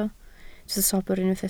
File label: "sobr", Welsh